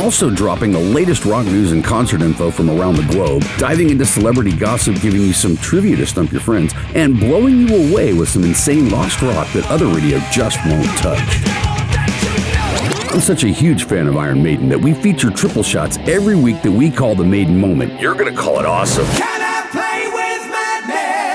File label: Show Promo; RetroRock Rewind Show Promo; 60 Seconds; RetroRock Radio Network